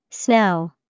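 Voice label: snow, English vocabulary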